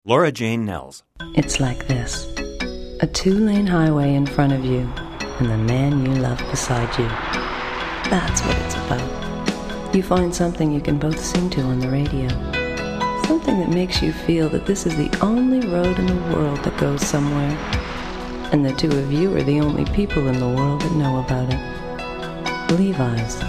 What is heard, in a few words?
commercial female